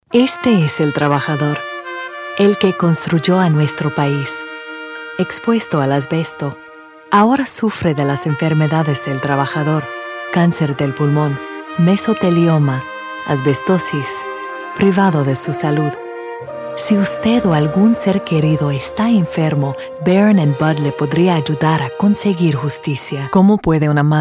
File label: spanish commercial